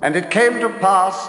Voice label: and it came to pass british bloke

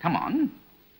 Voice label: come on, announcer; Voice

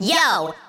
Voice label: "yo" chipmunk; ooh ahh

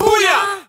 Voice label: "booyow", Voice

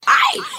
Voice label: "ay", voice

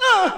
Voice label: "uh" scratch

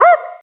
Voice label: "hoo"

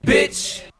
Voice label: "bitch", expletives

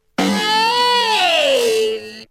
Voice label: "hey" elongated and horn-like, voice; ooh ahh

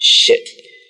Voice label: "shit", Voice expletives

